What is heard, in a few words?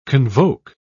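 "convoke", British English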